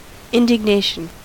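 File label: "indignation", US English; pronunciations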